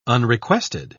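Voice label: "unrequested", English, British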